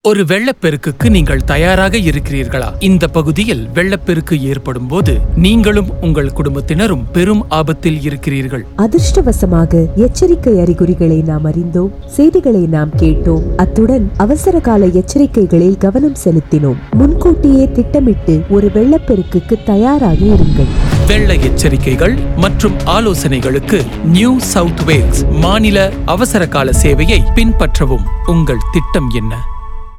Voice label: radio ad whats your plan tamil

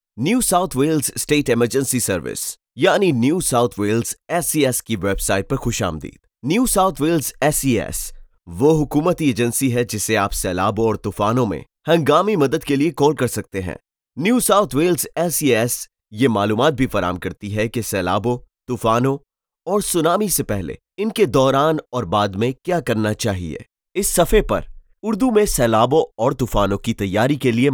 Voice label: urdu

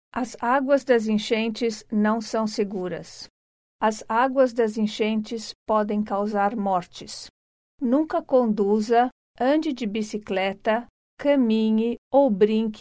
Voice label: portuguese floodsafe audio

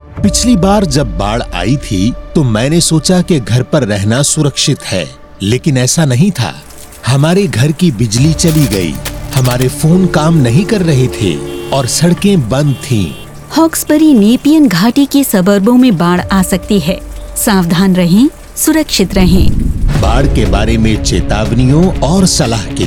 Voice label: radio ad hindi; know your risk

